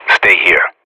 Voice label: radio bot hold, professional; vo; Counter Strike Model Pack; CS:GO